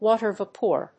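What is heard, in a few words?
"Water vapour", English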